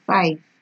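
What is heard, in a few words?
"five", Nigerian Pidgin, female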